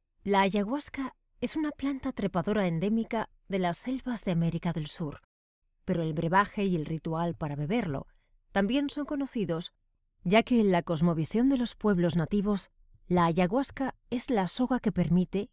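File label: Spanish Spain female narrative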